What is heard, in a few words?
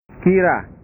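"kira", Indonesian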